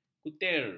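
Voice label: "kutir", Malay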